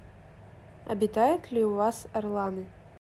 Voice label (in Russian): Предложение русский